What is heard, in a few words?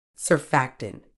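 "surfactant", British English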